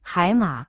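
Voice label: "seahorse", Mandarin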